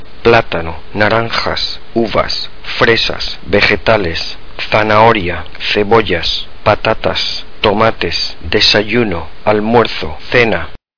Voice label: spanish food

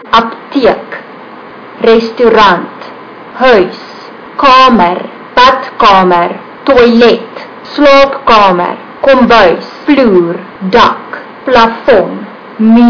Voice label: afrikaans

